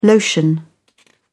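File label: "lotion", British English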